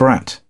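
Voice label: "brain", British English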